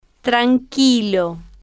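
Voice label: "tranquilo", slow, Spanish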